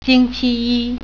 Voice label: "monday", Mandarin

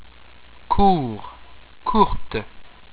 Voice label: "court courte", french

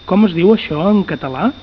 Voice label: how say in, Catalan

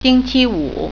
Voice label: "Friday", Mandarin

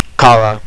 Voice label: fish, estonian

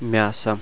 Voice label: "meat", russian

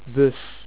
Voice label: "bus", Dutch

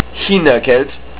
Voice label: "Chinese", Estonian

